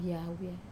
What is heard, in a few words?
"awia", to trick, wamesa